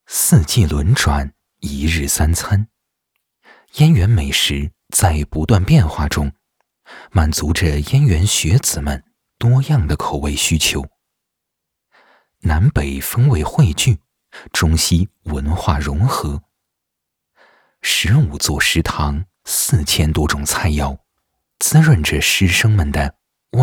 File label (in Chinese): 专题片; 北大